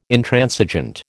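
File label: "Intransigent", American English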